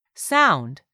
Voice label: "SOUND", english; 3000 words

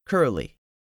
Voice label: "curly", English; 3000 words

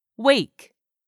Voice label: "WAKE", English; 3000 words

